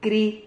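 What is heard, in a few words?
"cri", Spanish syllable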